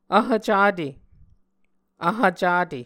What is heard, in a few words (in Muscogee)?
"vhv cate", Muscogee